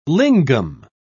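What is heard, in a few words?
"lingam", British English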